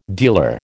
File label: "dealer", American